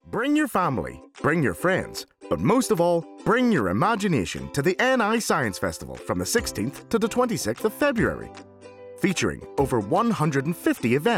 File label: Commercial